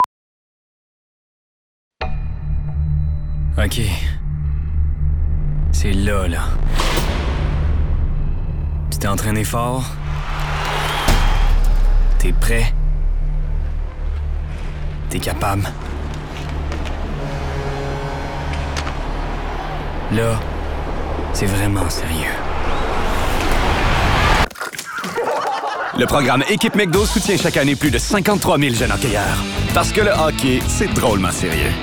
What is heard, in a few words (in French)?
demo voix